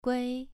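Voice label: "gui", Mandarin Chinese, second tone, pronunciation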